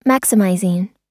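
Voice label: "maximizing", American English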